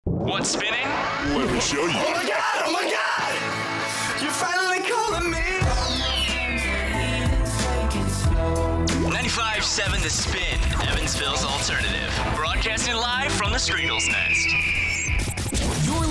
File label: Station Imaging